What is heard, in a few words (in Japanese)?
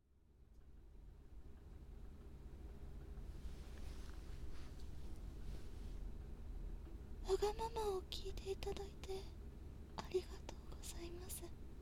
添い寝; お隣さん冬